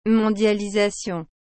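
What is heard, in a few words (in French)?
"mondialisation", French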